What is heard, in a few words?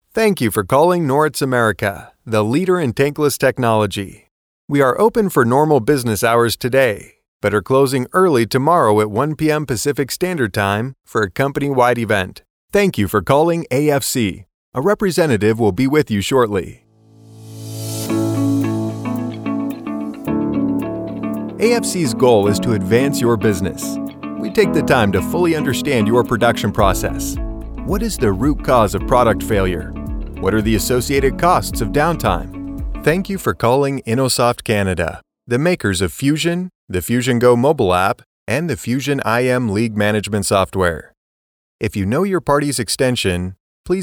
IVR Primary